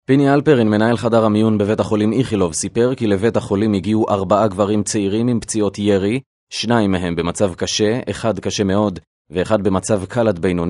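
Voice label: Hebrew, male, e-learning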